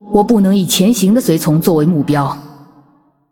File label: Female Ghost ERROR STEALTH, zh